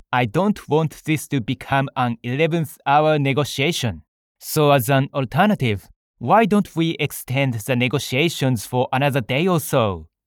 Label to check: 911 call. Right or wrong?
Wrong